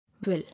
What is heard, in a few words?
"Will", English